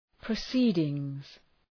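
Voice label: "proceedings", English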